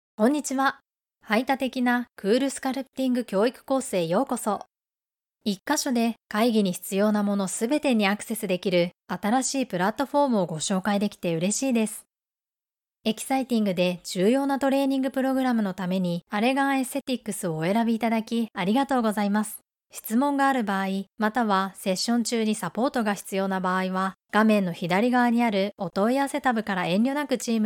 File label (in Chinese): 日语女 外语; 小语种